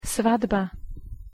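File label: "svadba", Slovak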